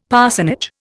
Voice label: "parsonage", Indian; Dictionary